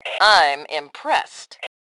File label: Compliment Awesome, Female; T2 Female 02